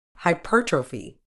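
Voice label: "hypertrophy", British English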